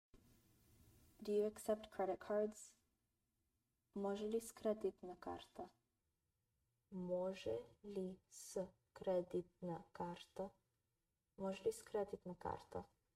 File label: Do you accept credit cards, bulgarian phrasebook; the talking bulgarian phrasebook